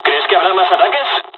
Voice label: dialog, dialogs spanish; War Thunder

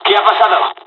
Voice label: dialog, dialogs spanish; us midway; War Thunder

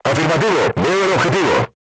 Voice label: voice message target lock, Spanish

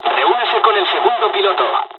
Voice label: fly, dialogs spanish; War Thunder

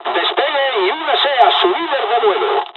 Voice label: takeoff, dialogs spanish; War Thunder

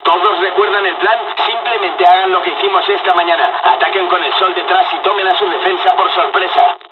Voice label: dialog, dialogs spanish; us midway; War Thunder

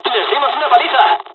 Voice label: dialogs spanish; War Thunder

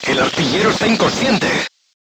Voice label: voice message gunlayer contused, Spanish

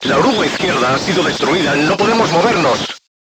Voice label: voice message left track knocked down, Spanish

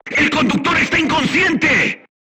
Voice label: voice message driver contused, Spanish

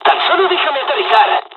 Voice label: dialogs spanish; usa; War Thunder

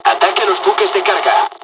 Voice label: dialogs spanish; War Thunder

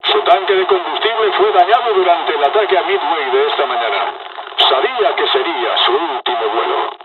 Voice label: dialog, dialogs spanish; War Thunder

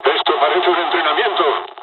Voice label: dialogs spanish; War Thunder

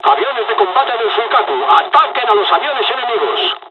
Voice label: dialogs spanish; War Thunder

